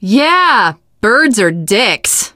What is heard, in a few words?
bird hate, teengirl, voice; Left 4 Dead 2; Source Engine; video games